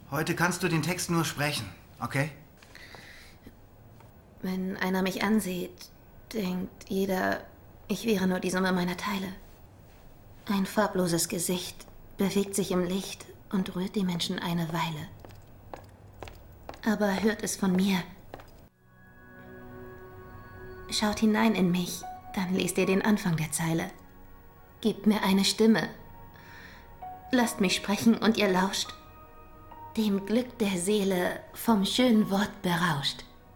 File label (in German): Synchron